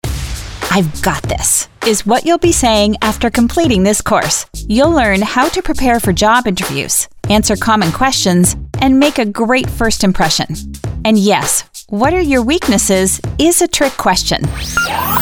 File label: eLearning Demo Grade 6 12